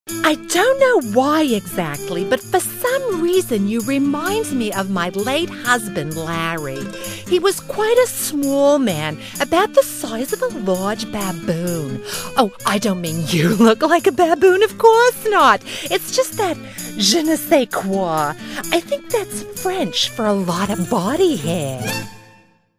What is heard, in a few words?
chat